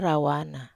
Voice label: "rawana", Wamesa